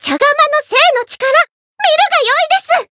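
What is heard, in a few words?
Voice encounter, Japanese; myungwha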